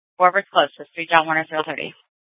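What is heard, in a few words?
Scottsdale Police Department South Dispatch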